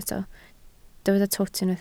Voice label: "twt", Welsh

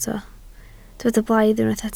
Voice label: "blaidd", Welsh

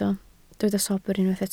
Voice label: "sobr", Welsh

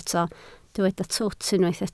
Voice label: "twt", Welsh

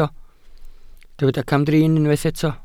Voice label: "camdrin", Welsh

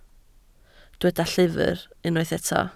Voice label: "llyfr", Welsh

